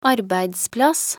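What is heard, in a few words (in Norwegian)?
"arbeidsplass", Norwegian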